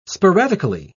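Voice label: "sporadically", British English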